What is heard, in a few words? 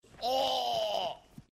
awwwww